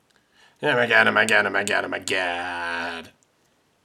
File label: Man Saying Omg